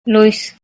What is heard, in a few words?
"luys", Armenian